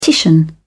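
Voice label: "titian", British English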